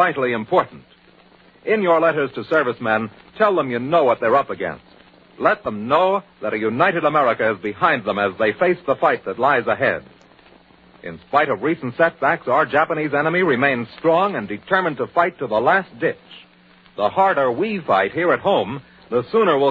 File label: Commercial Ww Ii Support